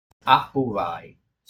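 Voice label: "Apubai", Sardinian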